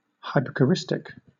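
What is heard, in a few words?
"hypocoristic", British English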